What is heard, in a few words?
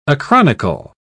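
"acronycal", British English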